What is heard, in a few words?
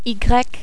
"y", french